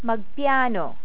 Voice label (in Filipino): "magpiyano", Tagalog